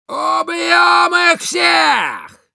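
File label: Demoman battle cry, russian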